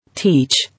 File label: "Teach", English